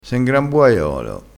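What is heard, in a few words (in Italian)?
"buaiolo", vocabolario dialettale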